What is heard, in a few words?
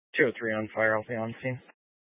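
County Fire, Scanner audio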